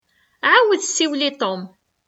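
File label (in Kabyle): Ɛawed siwel i Tom., Kabyle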